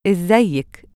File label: "izzaayik", Egyptian Arabic